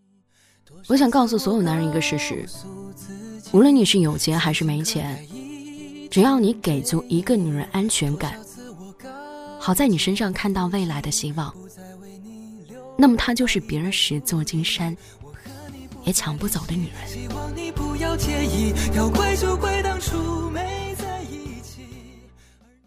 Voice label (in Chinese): 女 抖音视频 素人 情感